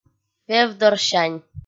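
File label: "вевдорсянь", Komi, female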